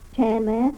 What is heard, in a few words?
"three", tillamook